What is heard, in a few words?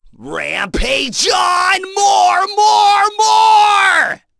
Vox Skill, en; kingsraid